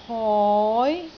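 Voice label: "hoi2", Cantonese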